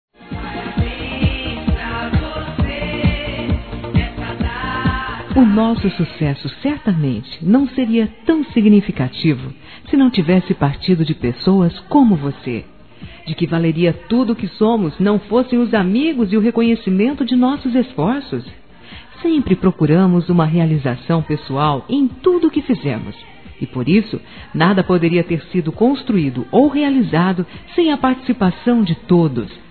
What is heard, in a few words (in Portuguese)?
Aniversário Cliente Feminino; Telemensagens; Empresarial; Telemensagens 2017